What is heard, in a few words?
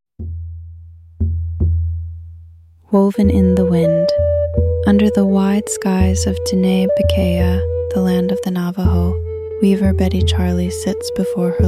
voiceover with music